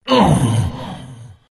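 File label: pain, chinese; dota2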